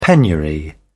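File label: "penury", British English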